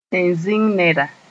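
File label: "tẽn-zẽng neda", Mooré; emigrant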